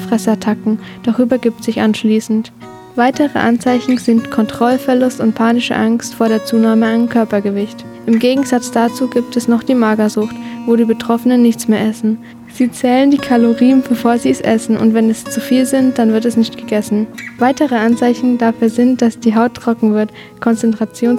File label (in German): Reportage Essstörung